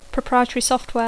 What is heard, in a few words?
"proprietary software", English; Dacco